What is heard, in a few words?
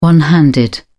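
"one-handed", English, British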